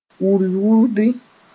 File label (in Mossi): "wurd-wurdi", moore; jostle